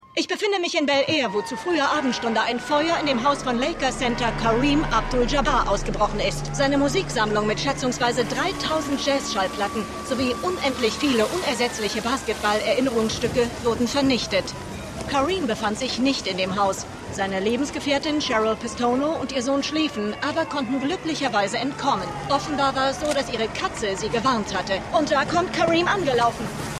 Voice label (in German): TV Reporterin; Winning Time